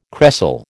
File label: "cresol", American